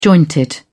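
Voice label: "jointed", British English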